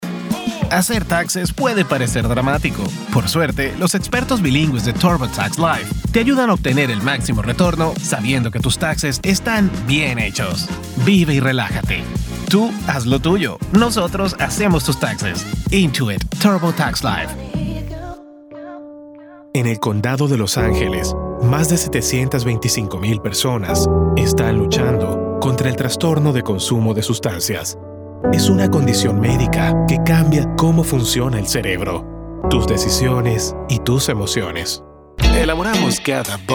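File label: Spanish, Commercial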